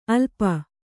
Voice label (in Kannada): "ಅಲ್ಪ", Kannada